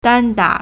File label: "dan1 da3", Chinese